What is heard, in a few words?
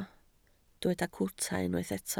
"cwta", Welsh